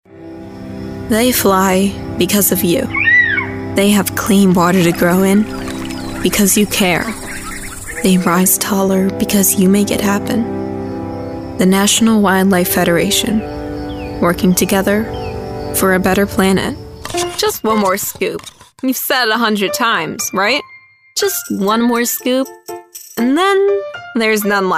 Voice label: english female voices american accent